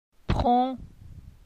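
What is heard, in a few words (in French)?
"Pron", French; phonetique; la syllabe on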